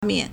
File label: "mian4", Chinese